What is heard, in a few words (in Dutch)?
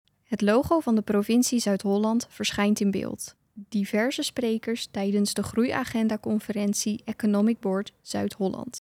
voiceover; groeiagenda conferentie 2023; aftermovie